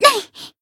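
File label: attack, Japanese; hoozuki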